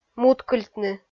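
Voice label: "муткыльтны", Komi, female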